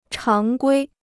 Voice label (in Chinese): "常规", Chinese